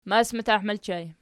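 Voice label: "masmatah" ergative plural, Archi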